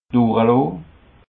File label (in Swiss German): "duraloo", alsace